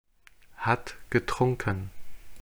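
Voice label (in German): "hat getrunken", German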